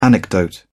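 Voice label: "anecdote", British English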